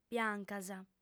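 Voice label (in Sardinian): "biancas", female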